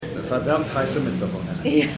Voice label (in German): Mann; Shining Girls